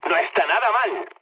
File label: trick, mood low, speech; War Thunder